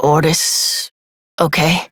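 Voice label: Adult, English; New War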